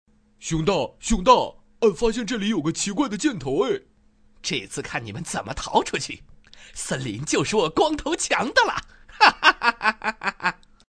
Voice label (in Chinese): 男 角色模仿 熊2; 光头强